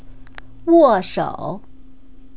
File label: "woshou", Chinese pronunciation